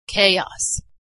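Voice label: "chaos", American English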